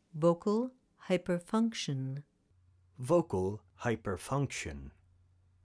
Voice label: Vocal Hyperfunction

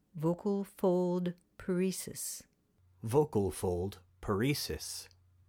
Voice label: Vocal Fold Paresis

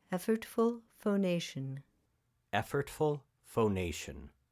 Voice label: Effortful Phonation

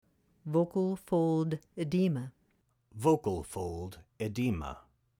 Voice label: Vocal Fold Edema

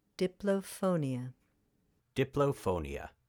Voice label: Diplophonia

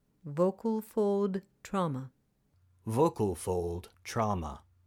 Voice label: Vocal Fold Trauma

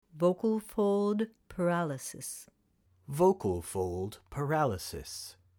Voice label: Vocal Fold Paralysis

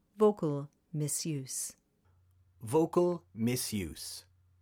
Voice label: Vocal Misuse

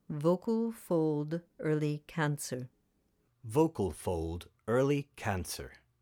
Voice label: Vocal Fold Early Cancer

